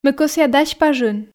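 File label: Komi sentences, female; ме кӧсъя дась пажын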